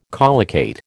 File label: "collocate", American